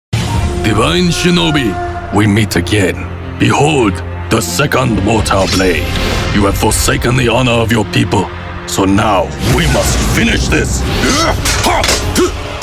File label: animation men video games, voices men